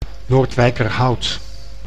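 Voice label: "Noordwijkerhout", Dutch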